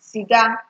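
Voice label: "ciga", Nigerian Pidgin, female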